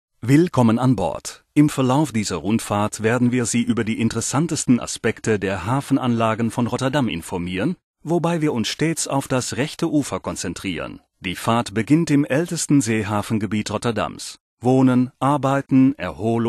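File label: Dutch German guide tour, maenner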